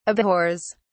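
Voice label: "abhors", British English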